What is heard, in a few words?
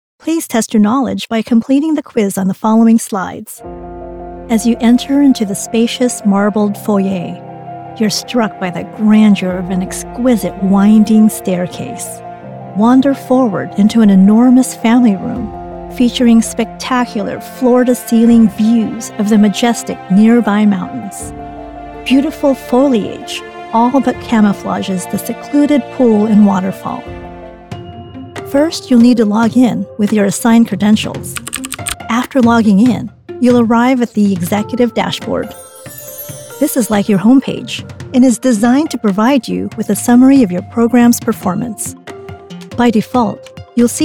Narration